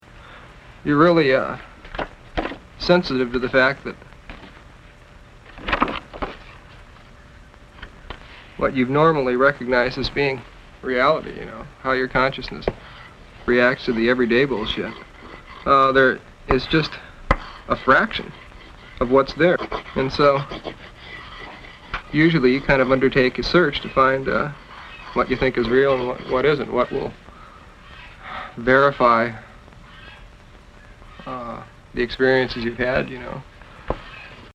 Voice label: dialogue lossless on